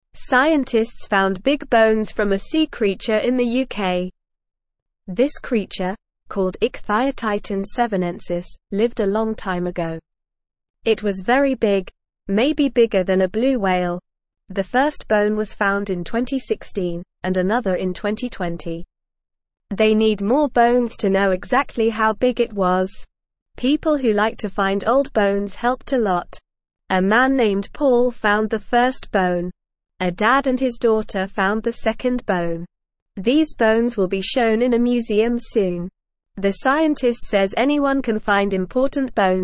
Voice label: English Newsroom Beginner NORMAL Reading; Giant Sea Creature Bones Found in UK